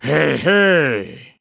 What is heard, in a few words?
Shocked Hey Hey; Skyward Sword